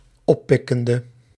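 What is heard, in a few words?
"oppikkende", Dutch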